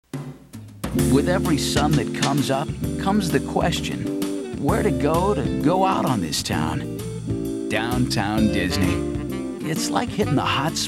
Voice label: resort tv loop commercial; WDW; Downtown Disney